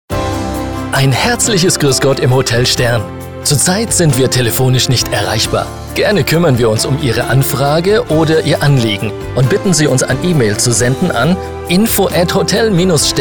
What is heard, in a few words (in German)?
Nacht Ansage Hotel; Stern tztal